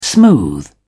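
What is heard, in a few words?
"smooth", British English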